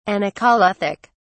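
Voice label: "anacoluthic", British English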